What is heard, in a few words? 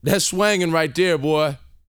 BOAST MF; Vocal Planet; R&B Dance